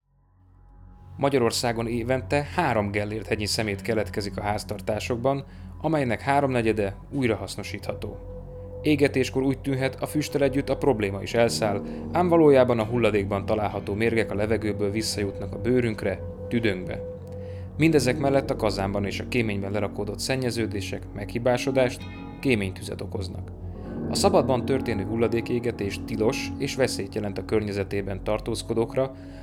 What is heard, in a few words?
radio spot; katasztrofa-vedelem